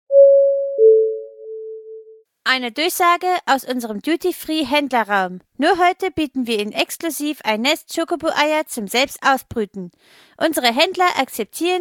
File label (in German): durchsage